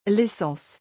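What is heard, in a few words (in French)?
"l'essence", French; vocabulaire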